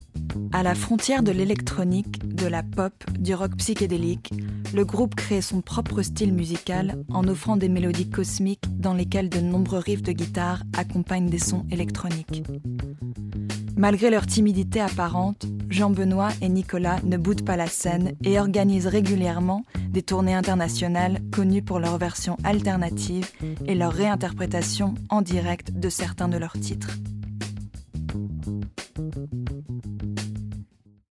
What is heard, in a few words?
Voiceover Foreign Language French & Italian; chicago